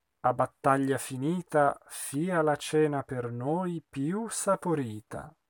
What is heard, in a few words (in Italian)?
Un'aura amorosa espressivo; Così fan tutte